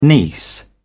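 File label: "niece", British English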